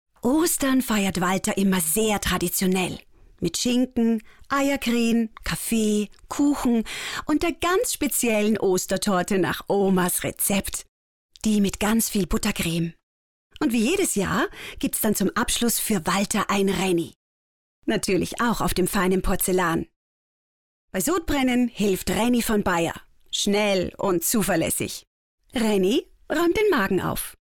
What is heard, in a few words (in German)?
Frau OFF